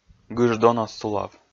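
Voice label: "гыж дон оз сулав", Komi-Zyrian, male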